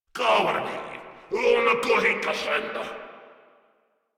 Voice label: Cover Me, Grineer Male; Warframe

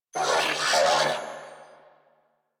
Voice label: Surprised, Female; en; Warframe; New War; Narmer Grineer

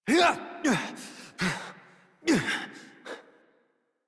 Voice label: Horse Mount Adult; Warframe; Duviri

